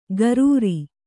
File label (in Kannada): "ಗರೂರಿ", Kannada